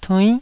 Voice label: "tuinn9", taiwanese